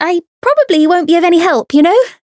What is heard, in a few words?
variable chant; myungwha